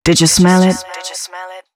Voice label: female spoken hooks, dance ultra voice; Mutekki Media; Dance Ultra Voice VOL-1

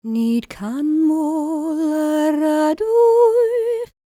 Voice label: WELSH F, World Voices

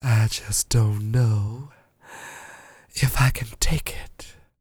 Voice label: DIALOG, FEM SPOKEN; ILIO; Vocal Planet

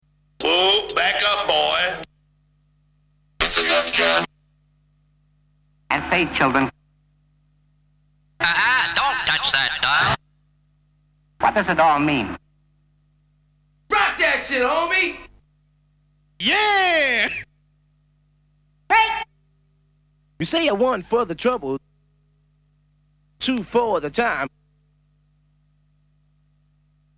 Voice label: Vocal Drops; Kniteforce; 1000 Samples Volume 1

